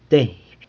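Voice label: "teiby", one, San Lucas Quiaviní Zapotec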